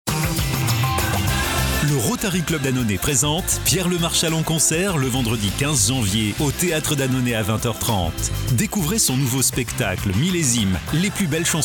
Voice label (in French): PUB VOIX OFF